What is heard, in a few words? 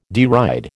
"deride", American